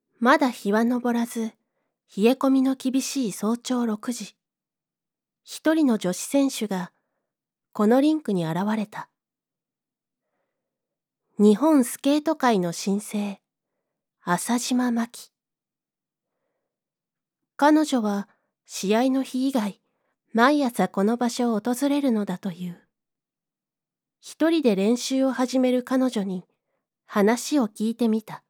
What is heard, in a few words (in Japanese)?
TV スポーツ選手ドキュメンタリー